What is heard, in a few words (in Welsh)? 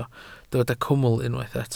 "cwmwl" Welsh